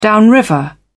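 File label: "downriver", British English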